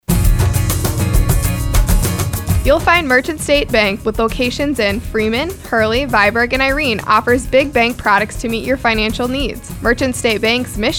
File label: Radio ad; Merchants State Bank